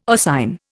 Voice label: "oscine", Indian